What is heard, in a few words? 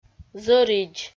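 "зӧридз", Komi, female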